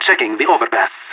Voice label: checking overpass, radio; LEET